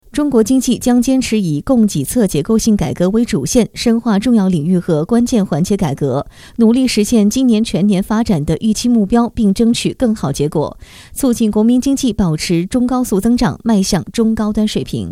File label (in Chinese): 新闻